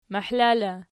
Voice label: "mahla", Archi

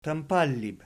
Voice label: "tampallib", Archi

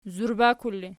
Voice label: "zurbakul", Archi

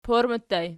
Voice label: "porma", Archi

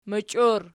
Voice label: "mochior", Archi

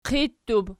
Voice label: "qittub", Archi

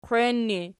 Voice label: "qwein", Archi

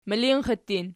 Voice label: "milinxittin", Archi

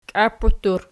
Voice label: "qiaputtur", Archi

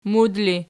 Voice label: "mud", Archi

